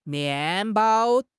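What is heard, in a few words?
"mian4 bao1", Chinese; HSK3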